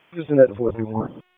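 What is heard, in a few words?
Police Southeast District Dispatch; wake p25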